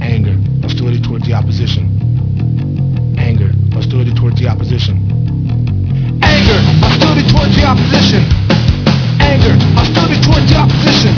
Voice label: anger